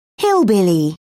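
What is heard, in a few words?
"hillbilly", British English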